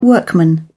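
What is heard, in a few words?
"workman", British English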